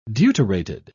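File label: "deuterated", British English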